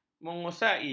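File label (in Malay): "mengusai", Malay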